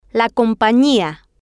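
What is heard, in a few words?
"compania", Spanish, female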